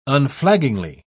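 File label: "unflaggingly", English, uk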